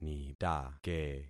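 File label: "nitake", concatenated syllables; Affixing experiment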